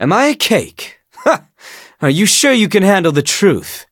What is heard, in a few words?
touch, en; cookierunwiki